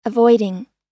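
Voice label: "avoiding", US English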